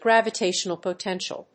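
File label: "Gravitational potential", English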